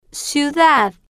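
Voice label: "ciudad", slow, spanish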